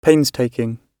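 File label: "painstaking", English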